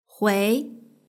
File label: "hui", Chinese; hsk2